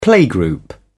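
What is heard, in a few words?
"playgroup", British English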